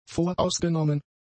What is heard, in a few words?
"vorausgenommen", German; adjectives